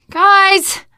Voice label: lost call, teengirl; survivor voice

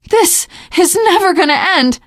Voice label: reaction negative, teen girl; survivor voice

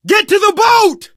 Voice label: arrive boat, survivor voice; source engine; left 4 dead 2; manager

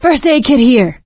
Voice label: spot first aid, survivor voice; source engine; left 4 dead 2; producer

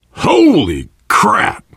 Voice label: violence awe, player survivor voice biker

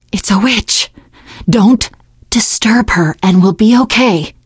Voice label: heard witch, teengirl; survivor voice

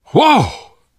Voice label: violence awe, survivor voice

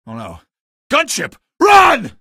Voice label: gunship, male; video games; npc; source engine; episodic; episode 1

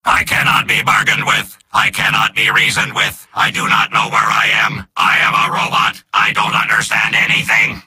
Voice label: robot; soldier; video games; source engine; tf; mvm

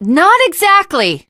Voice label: initial meeting, teen girl; Left 4 Dead 2; Source Engine; video games

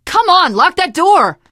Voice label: close the door, teen girl, survivor voice; source engine; left 4 dead 2

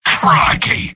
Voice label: sniper jeers; video games; source engine; tf; mvm

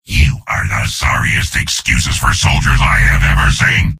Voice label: soldier jeers; source engine; tf; mvm

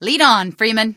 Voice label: lead on, female; Source Engine; video game; npc

